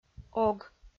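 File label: "ог" Komi-Zyrian, female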